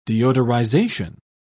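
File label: "deodorization", British English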